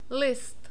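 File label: "list", Czech, male; short vowels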